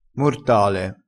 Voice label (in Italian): "mortale", crespinese